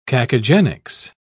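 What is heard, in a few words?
"cacogenics", British English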